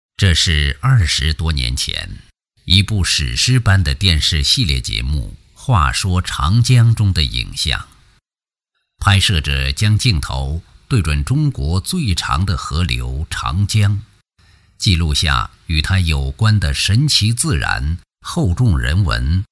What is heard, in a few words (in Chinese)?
男 纪录片 厚重 人文, 国语男声配音; 再说长江